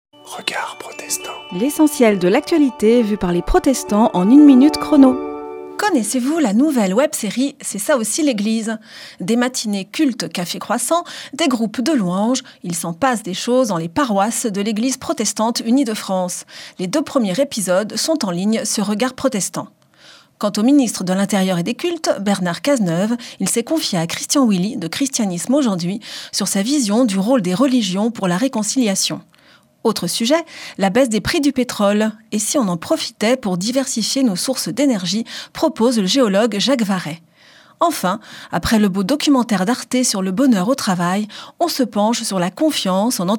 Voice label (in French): Spot radio; Regards protestants